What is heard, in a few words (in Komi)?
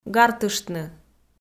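"гартыштны", Komi, female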